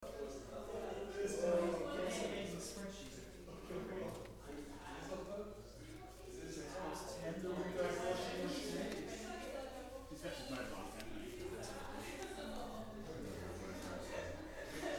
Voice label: group discussion